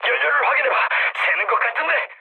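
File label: Korean tank damaged mood low, speech; War Thunder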